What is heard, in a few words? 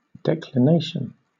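"declination", British English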